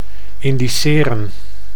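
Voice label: "indiceren", Dutch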